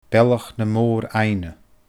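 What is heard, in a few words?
"Bealach na Mòr Aibhne", Scottish Gaelic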